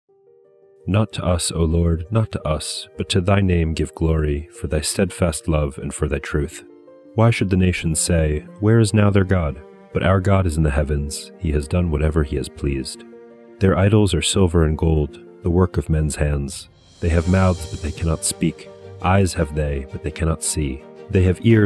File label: poetry and prayer psalm english